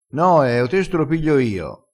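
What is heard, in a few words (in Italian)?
"noe", dialettale crespinese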